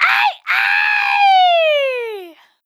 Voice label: "Cabaret" Dry, Vocal Samples, Words

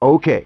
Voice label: OK, Yamaha DD-35

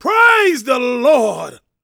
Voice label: PREACHIN, Gospel, PREACHER

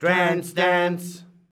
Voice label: "TRANCE", SHOUTS; Best Service; Hyper Dance

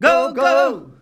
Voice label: GO - GO, MALES, Hyper Dance; Best Service

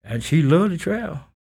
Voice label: SPOKEN, Blues Soul, TALK

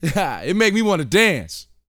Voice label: BOAST MF; Vocal Planet; R&B Dance

